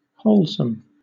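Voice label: "wholesome" English, British; pronunciations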